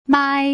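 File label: "mai", Mandarin Chinese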